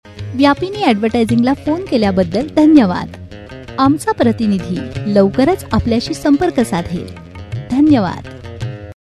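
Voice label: Marathi, female, IVR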